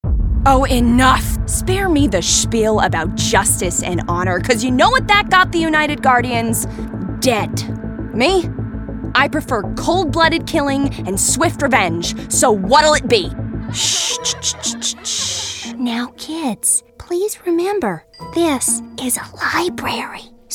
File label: animation women